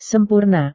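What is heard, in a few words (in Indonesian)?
"sempurna", Indonesian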